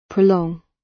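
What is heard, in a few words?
"prolong", British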